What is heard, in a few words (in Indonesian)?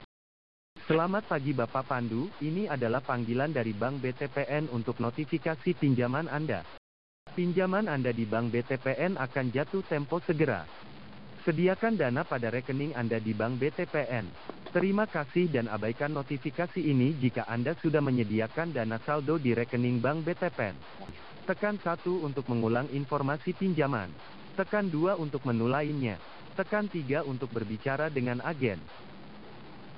Pria IVR